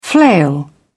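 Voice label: "flail", British English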